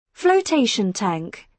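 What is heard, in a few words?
"flotation tank", British English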